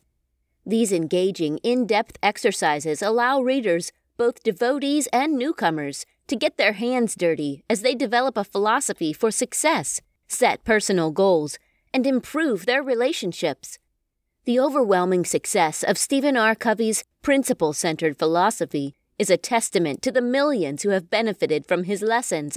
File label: Self Help Audiobook